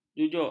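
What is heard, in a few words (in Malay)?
"jujuk", Malay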